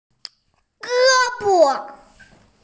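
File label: "arm", hearing impaired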